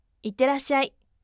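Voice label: voice itterassyai firm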